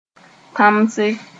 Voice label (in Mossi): "tãmse", moore